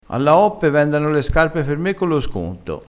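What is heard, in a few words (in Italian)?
"coppe", vocabolario dialettale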